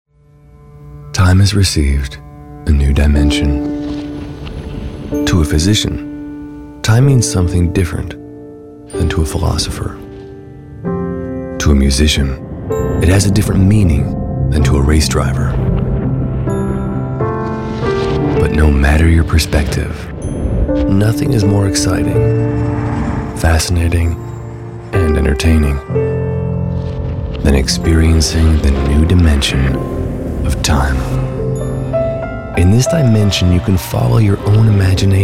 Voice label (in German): Eng US Werbung Neutral